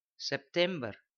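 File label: "septimber", september, Frisian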